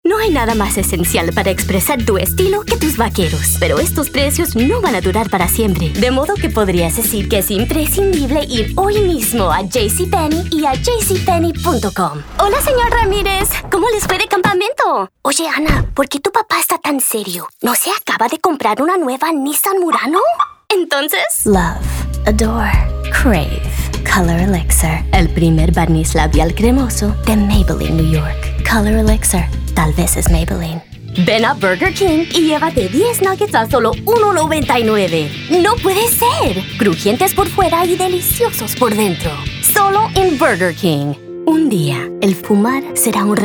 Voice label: voiceover foreign language; new york; spanish